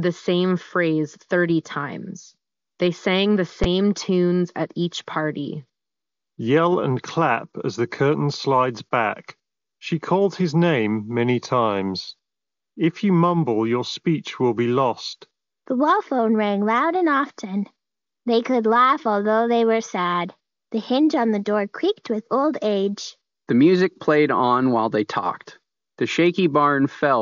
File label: Sony Linkbuds S Ideal microphone sample